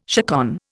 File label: "chaconne", Indian